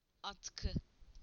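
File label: "scarf", Turkish; PictureDictionary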